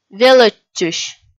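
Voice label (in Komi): "велӧдчысь", Komi, female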